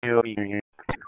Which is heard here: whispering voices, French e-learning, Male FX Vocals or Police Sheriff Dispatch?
Police Sheriff Dispatch